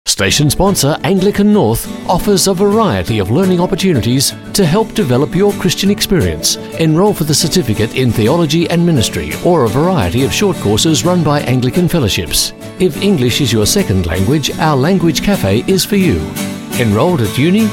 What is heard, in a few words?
Learning ad; Anglican North